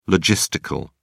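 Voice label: "logistical", British English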